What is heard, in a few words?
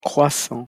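pronunciation "croissants", French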